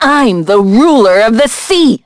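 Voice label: Vox Skill, voices heroes English; Miruru; kings raid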